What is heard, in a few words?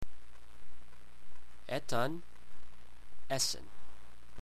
"Etan", englisc